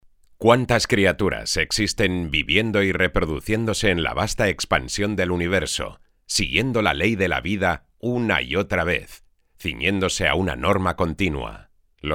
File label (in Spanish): Documental Spanish VO